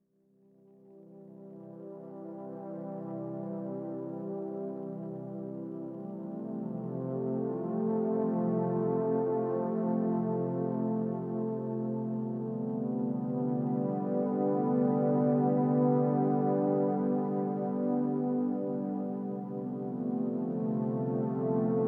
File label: Meditation Breathing; Manmanabhav Meditation pt 2; Superhero training : Stage 8 : Peace with the Heavenly Father : Mission 8